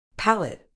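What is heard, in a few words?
"palate", medical English, British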